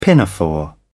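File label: "pinafore", British English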